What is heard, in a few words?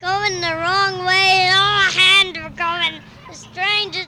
children at school talking about various; Walden school